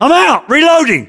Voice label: UK inform reloading generic, battlechatter

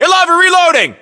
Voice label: US inform reloading, battlechatter, english

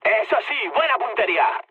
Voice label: bomb success mood med; speech; War Thunder

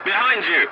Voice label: voice message check your six, english

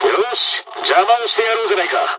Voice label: dialog, dialogs japanese; War Thunder

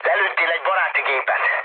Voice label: player killed ally, mood low, speech hun

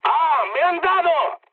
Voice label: damaged pilot, mood med, speech; War Thunder

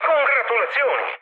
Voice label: player killed enemy mood med, speech it

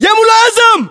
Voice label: rank lieutenant, battle chatter, english

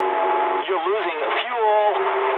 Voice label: eng tank damaged mood med, speech eng; War Thunder

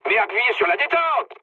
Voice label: attack position mood med, speech fr; War Thunder

